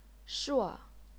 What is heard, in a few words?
"shuo4", chinese pinyin